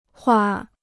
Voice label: "化", Chinese

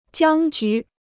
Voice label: "僵局", Chinese

